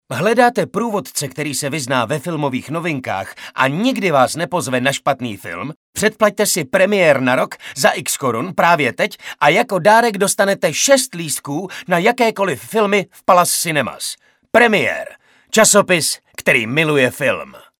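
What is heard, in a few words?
Male Czech commercial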